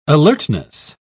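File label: "alertness", British English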